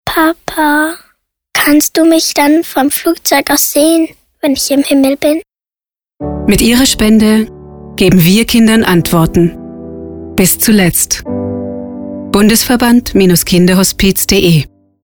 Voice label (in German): Kinderhospiz Funk Flugzeug